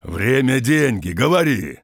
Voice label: lumberjack greeting